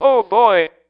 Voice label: oh boy robot, voice; expletives